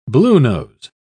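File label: "bluenose", British English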